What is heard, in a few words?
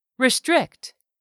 "RESTRICT", english; 3000 words